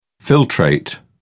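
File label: "filtrate", British English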